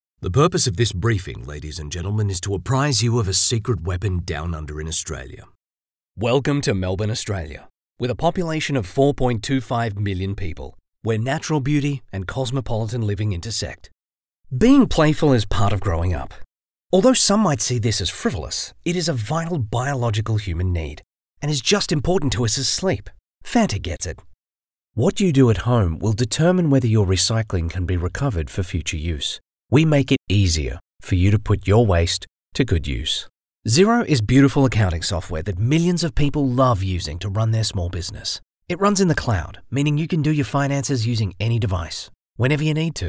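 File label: English Australian General; GoLocalise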